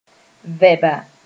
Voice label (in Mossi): "vɛba", moore